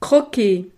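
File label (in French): "croquer", French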